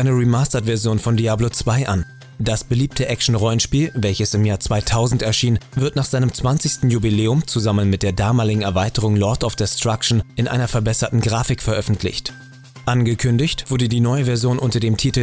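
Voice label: Radio GamingFM Show, Station Voice, News (Nachrichten), Presentation, Commercial (Werbung), Comedy, German; Radio Ausschnitt 99,9 GamingFM (Demo Projekt)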